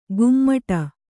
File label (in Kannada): "ಗುಮ್ಮಟ", kannada